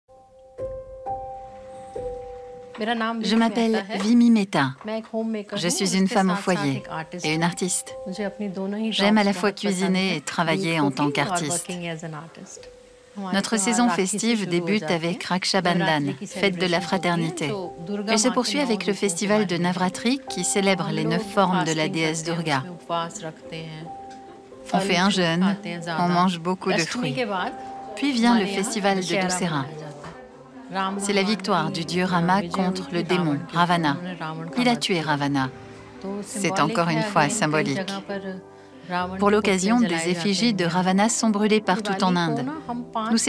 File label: voice over voix off; diwali